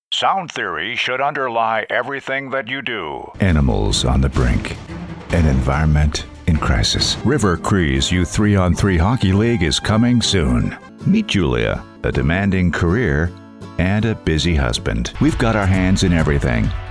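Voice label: English USA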